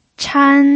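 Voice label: "chan", pinyin, first tone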